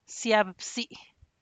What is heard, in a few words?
ziab bzy, chocolate atole, Santiago Matatlán Zapotec